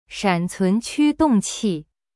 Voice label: "shan3cun2qu1dong4qi4", Chinese; cyberchinese

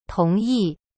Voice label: "同意" tong yi, chinese; cyberchinese

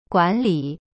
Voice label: "guan3li3", Chinese; cyberchinese

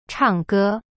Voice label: "唱歌", Chinese; chang ge; cyberchinese

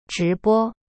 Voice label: "直播" zhi bo, chinese

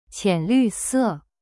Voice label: "浅绿色 qian lu se", Chinese; cyberchinese

